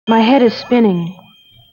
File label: My Head Is Spinning, Spoken, Industrial